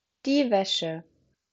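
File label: "Wäsche", German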